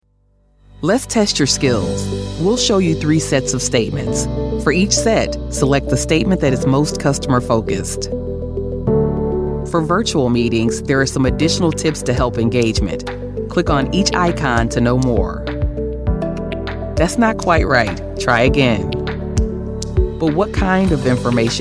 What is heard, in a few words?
african american, elearning